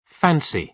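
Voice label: "fancy", British English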